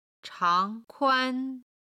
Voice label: "chang2 kuan1", Chinese

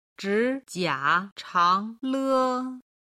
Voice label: "zhi jia chang le", Chinese

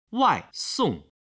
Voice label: "waisong", Chinese

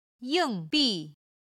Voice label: "ying4 bi4", chinese

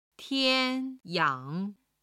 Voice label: "tian1 yang3", Chinese

